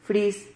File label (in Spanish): "fris", Silabas